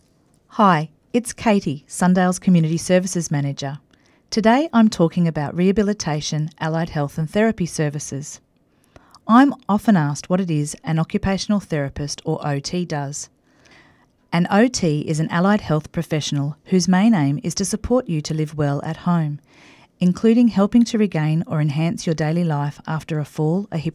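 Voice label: aired discussion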